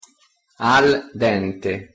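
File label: "al dente" Italian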